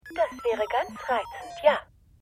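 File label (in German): Übersetzungsstimme; Futurama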